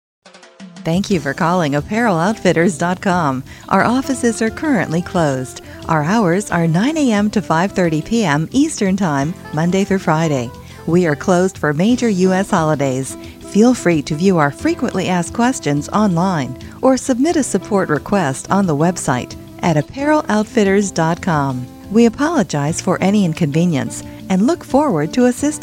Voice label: apparel rental, femalevoices; audio on hold

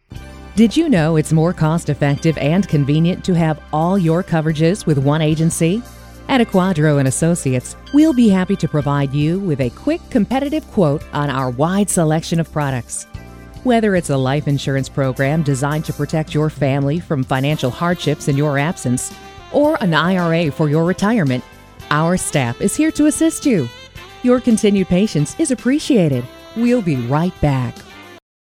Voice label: insurance co, female voices; audio on hold

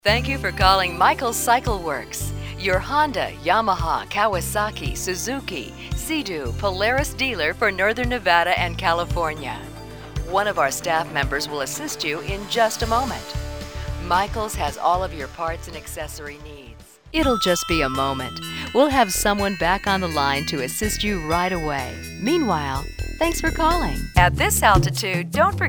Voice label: voice samples, female voices; audio on hold

